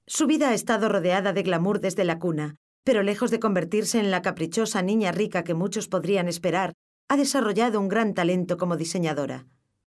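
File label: spanish female narration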